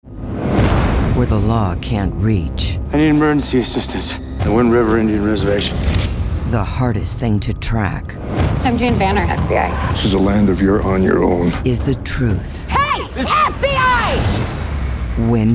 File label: trailer female